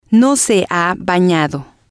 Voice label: "no se ha banado", spanish